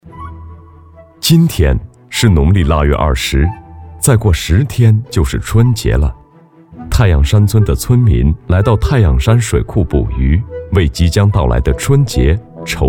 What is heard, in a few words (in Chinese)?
男-记录